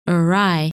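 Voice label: "Er Rai", English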